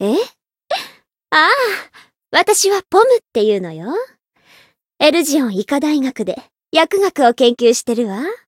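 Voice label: voice story, Japanese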